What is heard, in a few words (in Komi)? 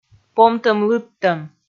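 "помтӧм-лыдтӧм", Komi, female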